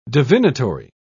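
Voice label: "divinatory", British English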